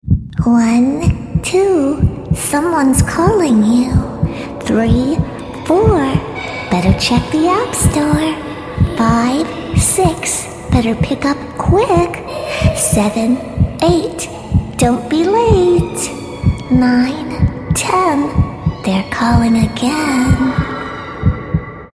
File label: creepy voice